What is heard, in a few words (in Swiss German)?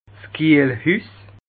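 "kiehlhus", Alsatian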